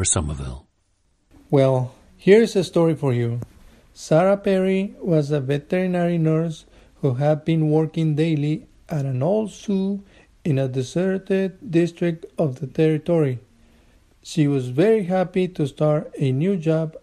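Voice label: michoacan, International Dialects of English Archive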